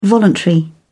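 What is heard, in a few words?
"voluntary", British English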